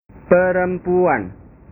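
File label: "perempuan", Indonesian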